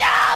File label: "yo" screams; Voice; ooh ahh